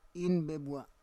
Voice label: "in bebua", Roon